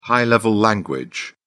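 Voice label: "high-level language", English, British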